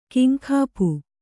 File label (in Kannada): "ಕಿಂಕಾಪು", Kannada